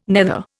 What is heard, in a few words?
"nether", Indian